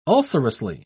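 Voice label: "ulcerously", British English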